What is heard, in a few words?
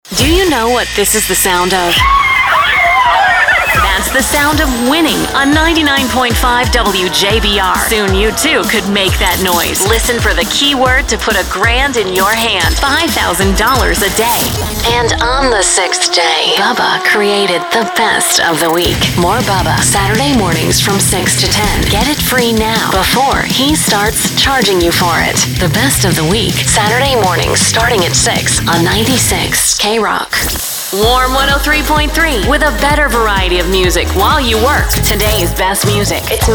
Radio Imaging